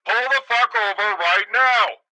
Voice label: police megaphone; Blind Drive